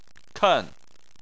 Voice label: "ken4", Chinese